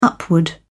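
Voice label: "upward", British English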